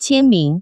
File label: "qianming", Taiwan Chinese